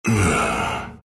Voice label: death, ru; dota2